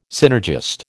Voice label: "synergist", American